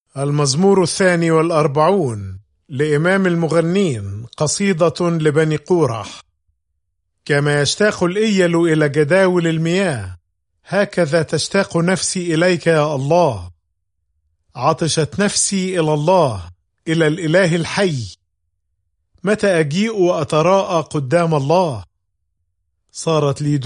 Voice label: Psalm, arabic bible; psalms